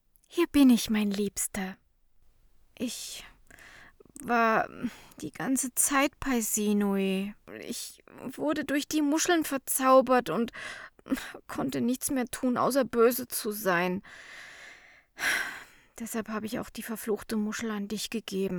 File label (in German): traurig